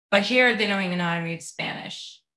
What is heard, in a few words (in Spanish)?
Voice Acting es F0